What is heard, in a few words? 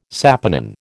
"saponin", American